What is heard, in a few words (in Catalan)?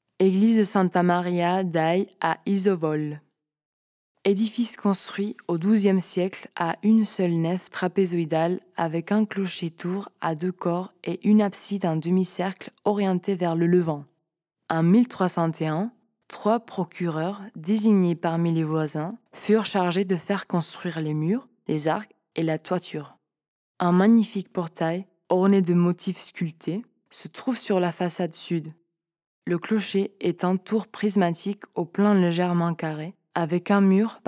locucio frances